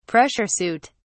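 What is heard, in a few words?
"pressure suit", British English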